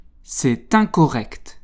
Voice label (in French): "c'est incorrect", French